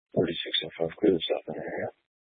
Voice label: Police Dispatch; Police 1 Dispatch